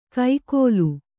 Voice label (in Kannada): "ಕೈಕೋಲು", Kannada